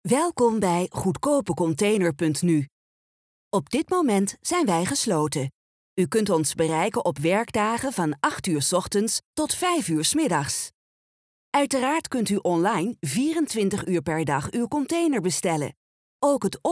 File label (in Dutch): Vrouw